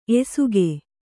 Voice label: "ಎಸಕ", Kannada

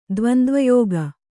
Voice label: "ದ್ವಂದ್ವಯೋಗ", kannada

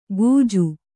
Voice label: "ಗೂಜು", Kannada

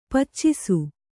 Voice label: "ಪಾಚಿಸು", kannada

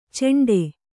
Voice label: "ಚೆಂಡೆ", Kannada

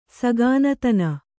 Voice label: "ಸಘಾನತನ", Kannada

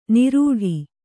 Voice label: "ನಿರೂಢಿ", Kannada

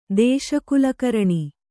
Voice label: "ದೇಶಕುಲಕರಣಿ", Kannada

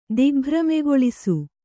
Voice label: "ದಿಗ್ಭ್ರಮೆಗೊಳಿಸು", Kannada

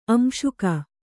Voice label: "ಆಂಶಿಕ", Kannada

